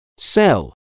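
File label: "sell", English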